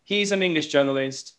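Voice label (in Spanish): Voice Acting, Spanish, male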